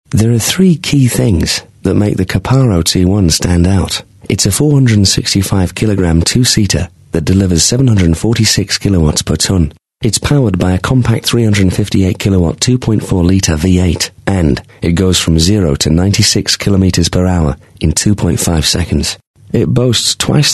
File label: Style Intimate British North Country